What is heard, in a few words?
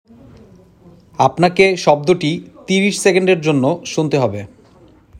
male sound given